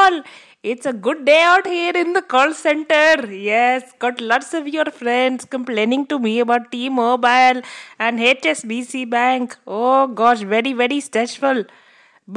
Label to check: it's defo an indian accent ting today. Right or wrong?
Right